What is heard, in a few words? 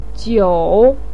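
"jiu" third tone, chinese, voice pinyin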